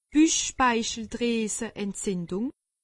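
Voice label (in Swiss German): "buchspeicheldrieseentzindung", Alsatian; medecine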